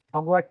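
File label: Voice Acting es M, Spanish, male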